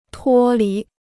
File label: "脱离", Chinese